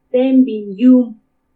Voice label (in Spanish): "Témbini ka iumu", quince, Purépecha; Números